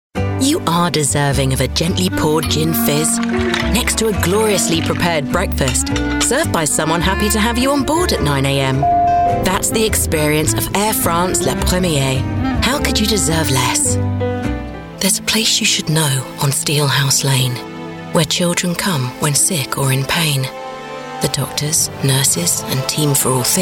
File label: Commercial Mix